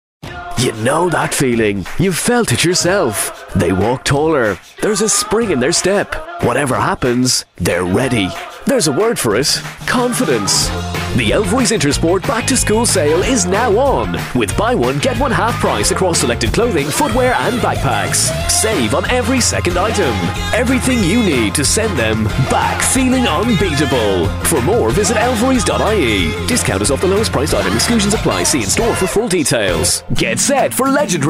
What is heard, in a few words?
Commercial Voiceover